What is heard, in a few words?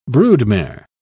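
"broodmare", British English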